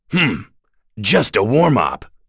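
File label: Vox Victory, voices heroes English; kingsraid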